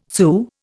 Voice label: "Sioux", Indian